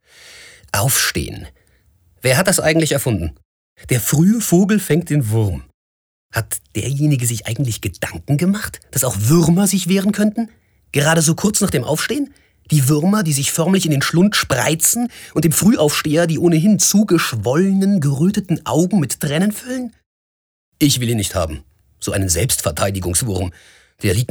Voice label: Witzig; Der Fruehe Vogel

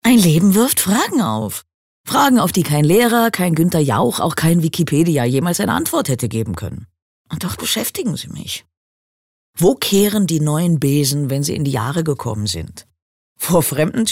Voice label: Schauspiel Dynamisch

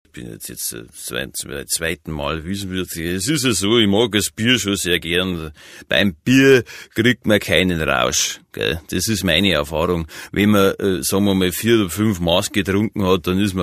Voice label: Dialekt Bayerisch

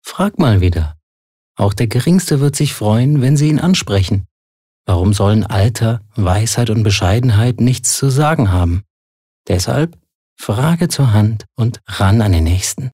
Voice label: Werbung Sanft

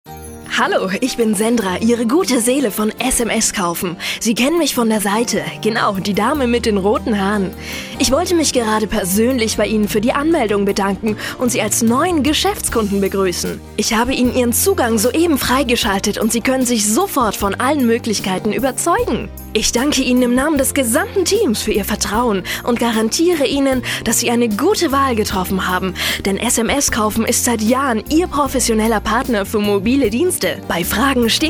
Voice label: Fremdtake Dynamisch

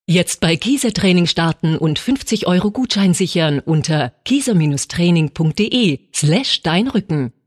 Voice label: Werbung Neutral

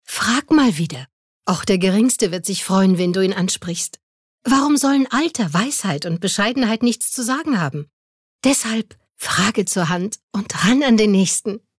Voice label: Werbung Sanft

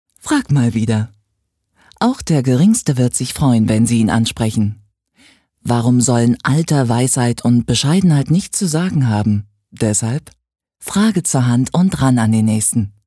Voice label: Werbung Neutral

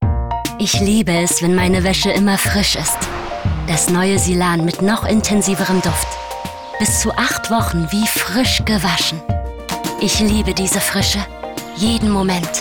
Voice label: Freundlich

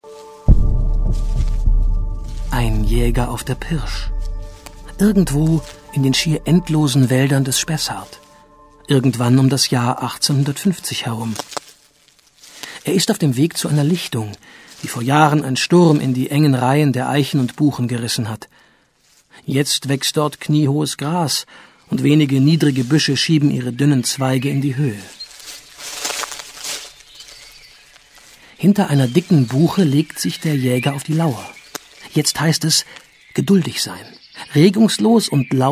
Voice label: Sanft

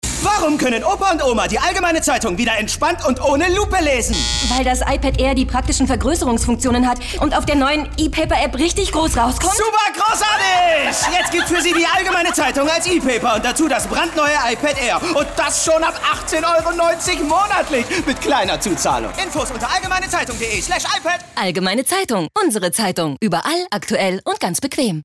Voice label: Dynamisch; Fremdtake

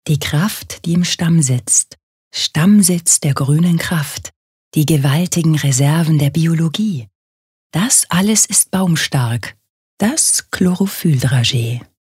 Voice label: Werbung Sanft

